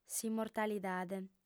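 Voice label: "s'immortalidade", Sardinian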